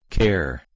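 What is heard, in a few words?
"ker", Proto-Indo-European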